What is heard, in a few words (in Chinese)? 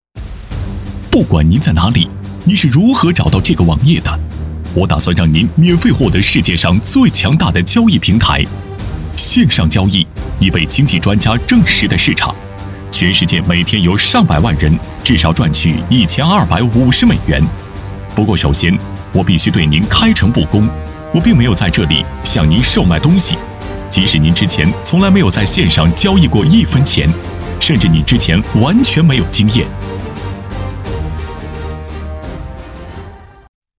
宣传片 金融网站